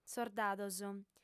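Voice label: "sordados", female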